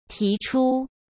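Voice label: "提出", Mandarin Chinese; hsk; chinese-flashcards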